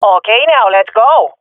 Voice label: round start, swat fem; Counter Strike Model Pack; CS:GO